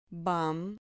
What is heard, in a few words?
"vam", Spanish